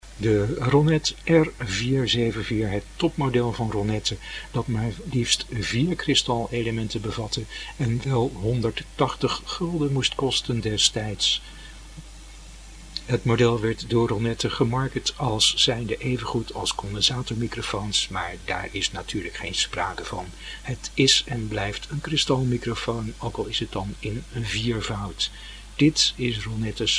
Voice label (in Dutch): Ronette R 474 sound NL